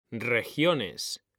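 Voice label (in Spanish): "REGIONES", Spanish; mekanta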